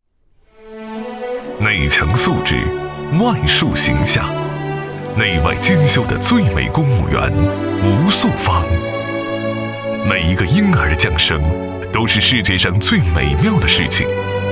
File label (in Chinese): 国语男, 人物专题; 计划生育